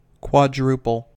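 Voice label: "quadruple", US English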